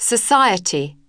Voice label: "society", pronunciation